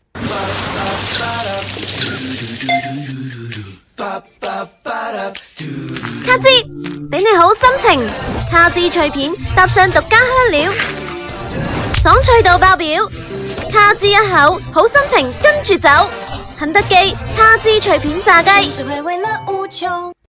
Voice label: 粤语; 肯德基

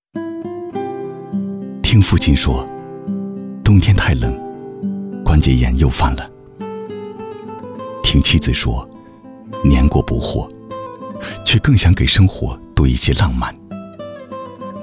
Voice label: 广告地产抒情

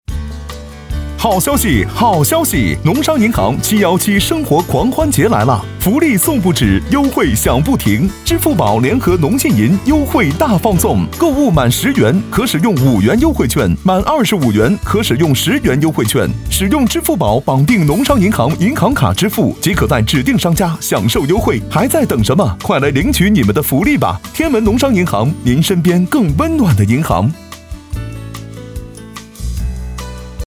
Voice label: 时尚促销; 农银信

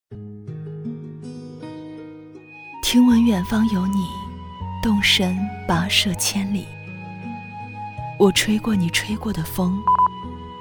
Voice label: 短视频 古风; 远方有你

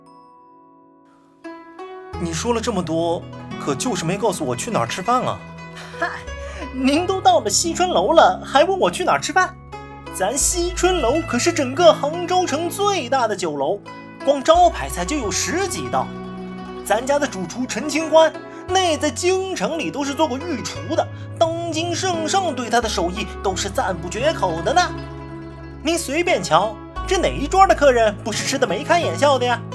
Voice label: 过场动画双角色; 杭州; nsh手游

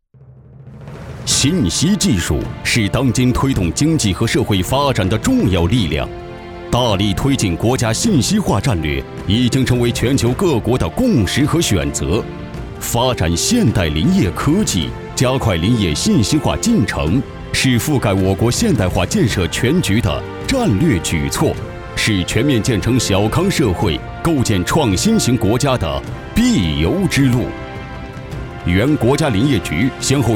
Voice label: 专题 党政 激情大气; 国家林业

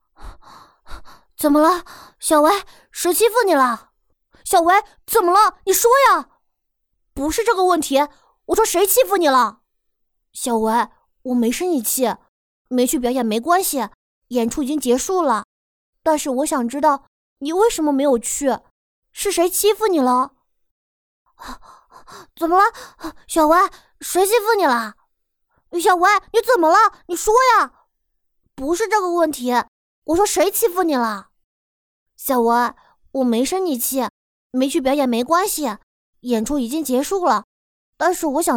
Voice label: 正太

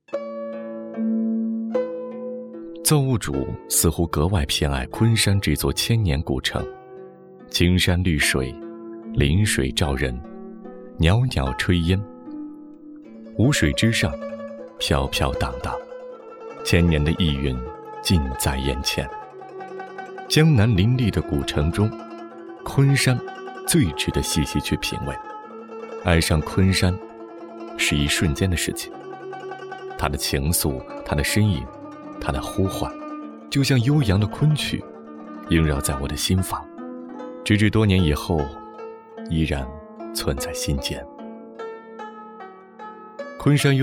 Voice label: 娓娓道来; 昆山解读

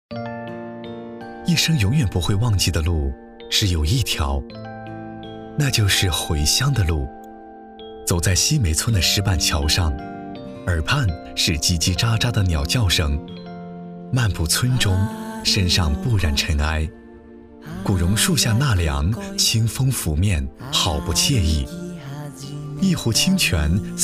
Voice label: 走心自然; 走心自然一生永远不会忘记的路只有一条

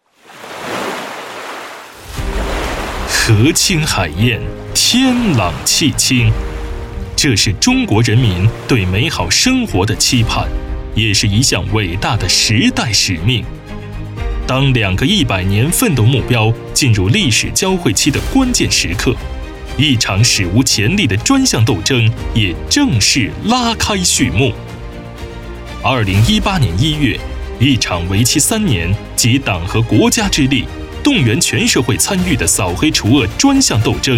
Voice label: 专题大气

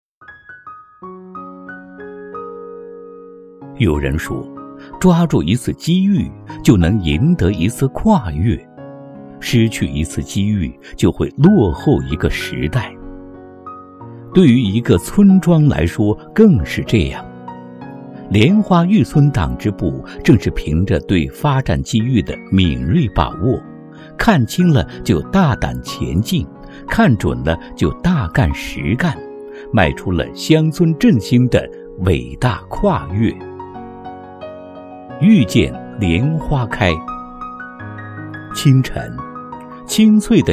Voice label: 男声专题类浑厚大气娓娓道来型; 莲花峪党支部

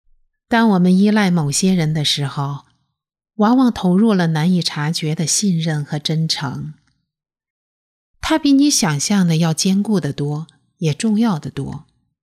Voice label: 电台

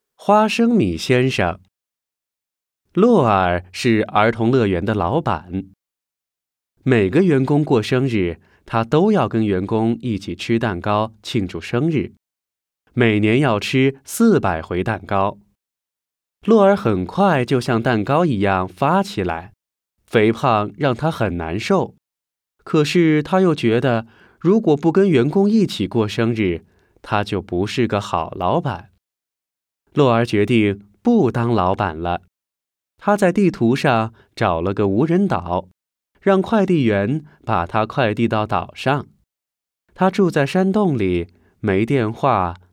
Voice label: 绘本新试音

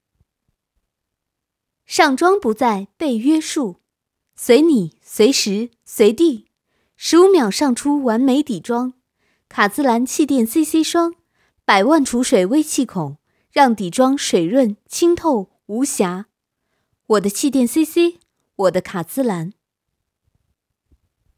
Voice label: 广告

